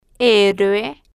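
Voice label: "heroe", slow, female, Spanish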